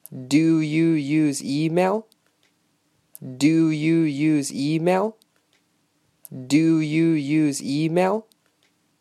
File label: do you use email slow